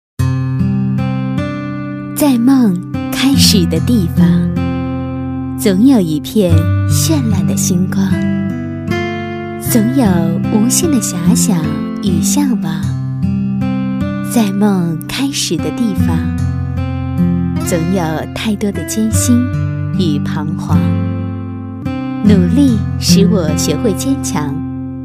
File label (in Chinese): 女 年轻甜美, 广告促销 [暖心广告] 床垫; 曼尼床垫; 国语女声配音